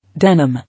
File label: "Denim", English